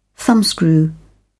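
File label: "thumbscrew", British English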